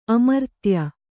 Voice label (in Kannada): "ಅಮರ್ತ್ಯ", Kannada